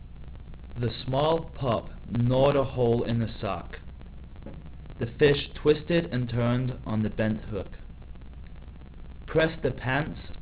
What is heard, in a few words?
male